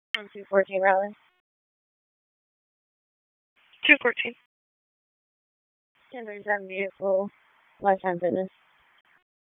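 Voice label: Police Northeast District Dispatch, p25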